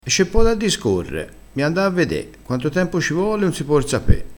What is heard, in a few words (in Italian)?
"bia", crespinese